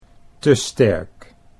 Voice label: "te sterk", Dutch